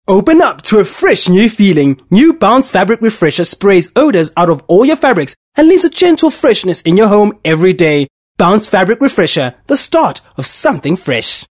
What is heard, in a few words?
English Bright